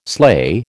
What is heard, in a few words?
"sleigh", American